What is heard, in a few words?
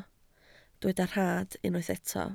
"rhad", Welsh